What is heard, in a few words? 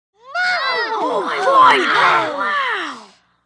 3 Kids Say Yeah Wow